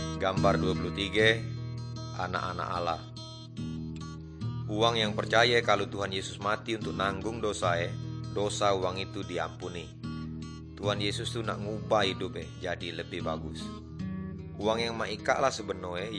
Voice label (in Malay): good news melayu tanjung raja